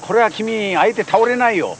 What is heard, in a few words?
pain